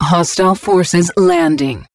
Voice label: advisor notification enemy troops landing, pacifist; Stellaris; ethics